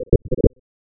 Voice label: MARYLAND